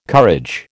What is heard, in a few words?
"courage", American